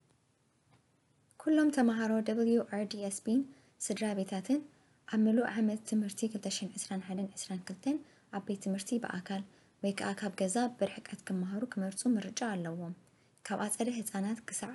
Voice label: Tigrinya

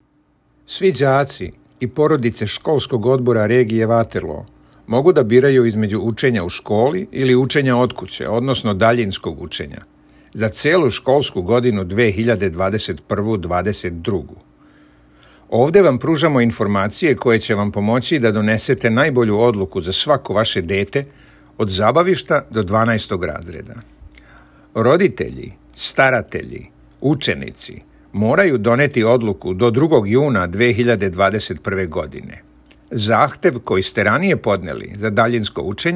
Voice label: SERBIAN

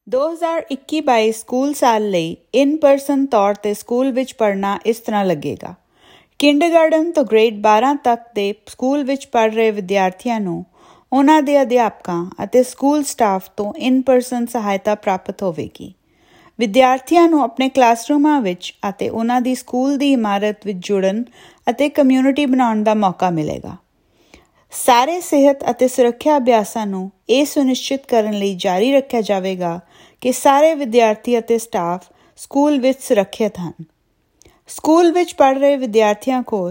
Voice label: In person Learning Punjabi

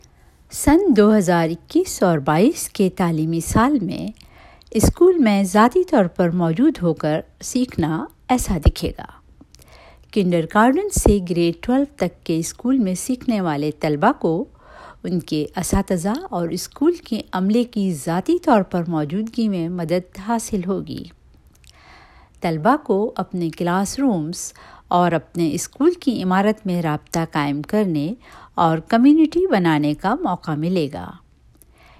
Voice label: Urdu

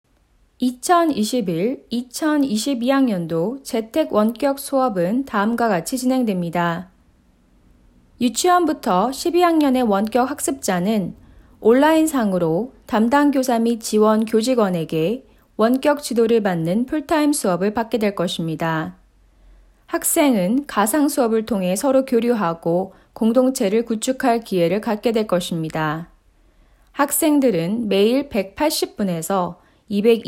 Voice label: Korean

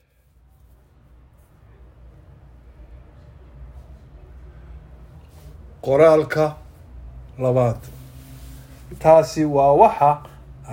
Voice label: Somali

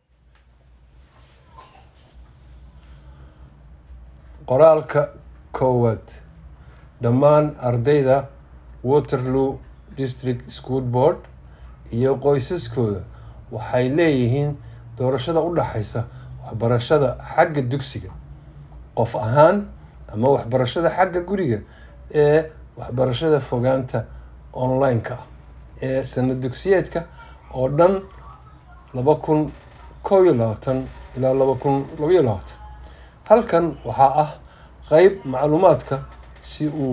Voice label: Somali

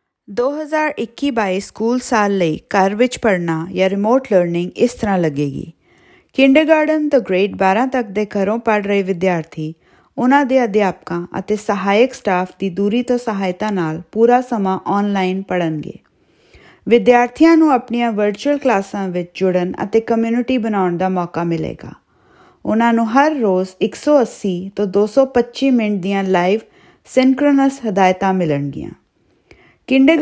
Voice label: Punjabi; Remote Learning

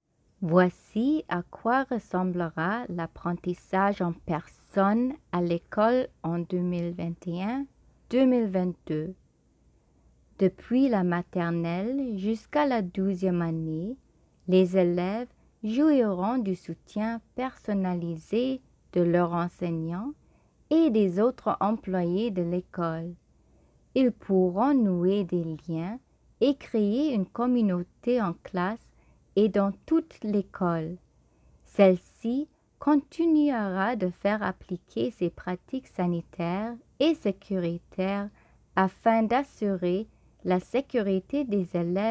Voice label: French; Learning at School

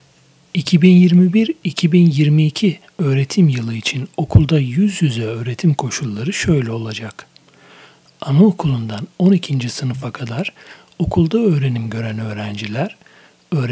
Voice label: Turkish

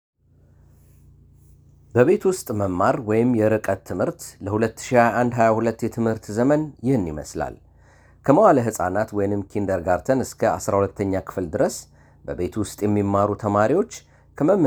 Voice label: Script Amharic

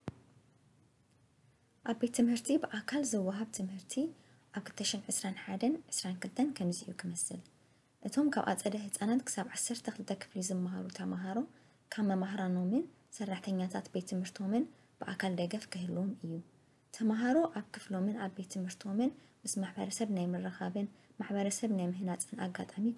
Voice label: Tigrinya

